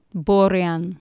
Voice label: "borian" Anglo Saxon